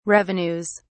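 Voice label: "revenues", British English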